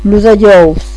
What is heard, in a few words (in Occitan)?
"ajous", gasconha